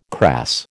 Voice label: "crass", American

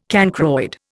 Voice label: "cancroid", Indian

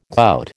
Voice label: "gout", American

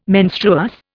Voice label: "menstruous", Indian

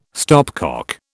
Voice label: "stopcock", British

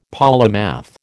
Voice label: "polymath", American

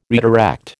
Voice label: "redirect", American

